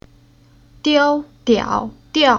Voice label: "Diao", Chinese

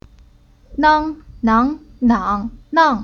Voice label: "Nang", Chinese

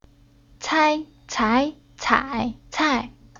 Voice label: "Cai", Chinese

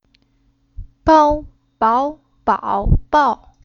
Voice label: "Bao", Chinese

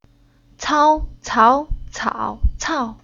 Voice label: "Cao", Chinese

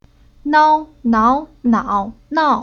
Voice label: "Nao", Chinese

